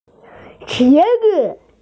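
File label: "qie2zi5", Mandarin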